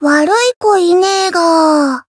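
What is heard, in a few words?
Voice encounter Japanese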